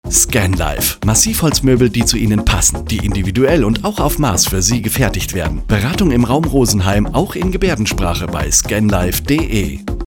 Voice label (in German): Funkspot; Scan-Life-GmbH-Co-KG